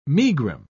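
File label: "megrim", British English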